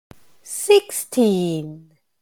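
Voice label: "sixteen", English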